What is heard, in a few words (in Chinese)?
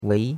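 "wei2" Chinese; Cours-de-Chinois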